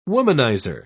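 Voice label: "womaniser", British English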